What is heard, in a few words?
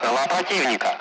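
Speech Sound Russian Common Allied Flight; bandit; DCS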